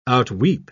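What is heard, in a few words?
"outweep", English, British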